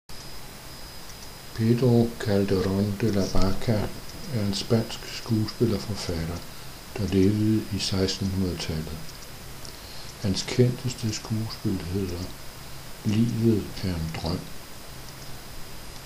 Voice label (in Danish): dansk; Madrid; Calderon; Barca